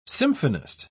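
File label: "symphonist", English, British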